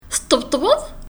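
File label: Kabyle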